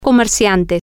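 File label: business person, Spanish, female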